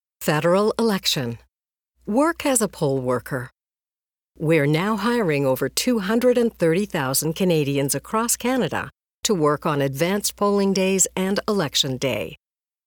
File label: Online Animated Explainer Video